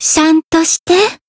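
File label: Voice heal, Japanese; ewella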